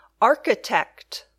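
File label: "architect", English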